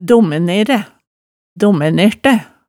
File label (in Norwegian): "dommæneræ, dommænertæ", hemsedalsmaalet tale